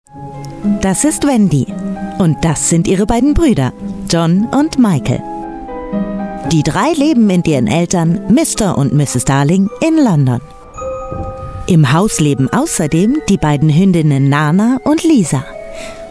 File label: german voice over female narrator